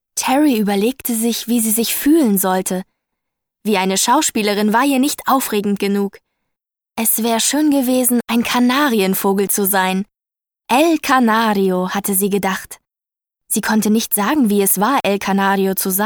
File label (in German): hoerspiel, deutsch frauen; sprecher